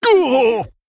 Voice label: Male Worgen Death